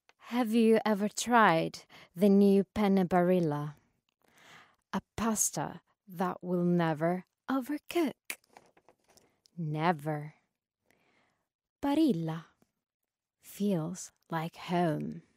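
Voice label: Italian, English accent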